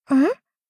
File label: doubt